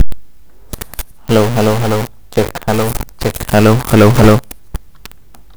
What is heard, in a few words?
Audio Rec analog mic tiny cap; AM62P; analog input microphone connected to 3.5mm jack; not working